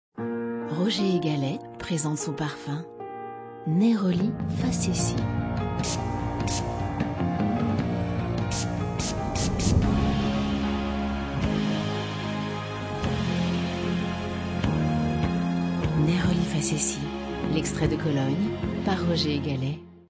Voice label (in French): pub TV; Roger Gallet